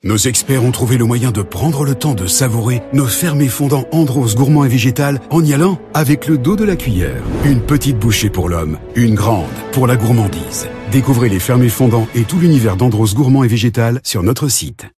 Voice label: advert deep epic mischievous; andros